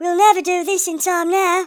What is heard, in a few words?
come on then, speech, Hippy